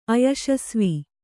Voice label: "ಅಯಶಸ್ವಿ", kannada